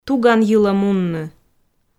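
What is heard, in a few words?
"туган йылӧ мунны", Komi, female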